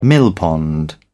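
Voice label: "millpond", British English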